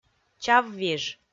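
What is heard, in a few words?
"чаввиж", Komi, female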